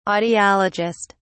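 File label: "audiologist", British English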